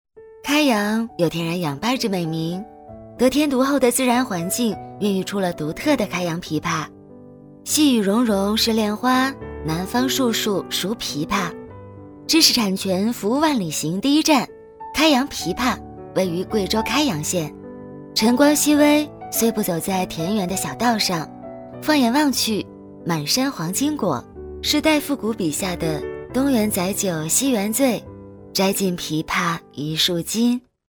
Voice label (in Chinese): 女 走心旁白 微电影; 开阳枇杷